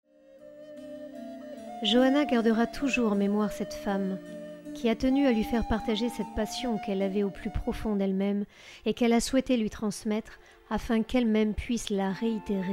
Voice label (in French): Narration Voix nostalgique; Tourbilon